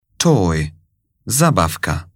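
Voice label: "toy", English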